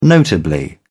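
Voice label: "notably", British English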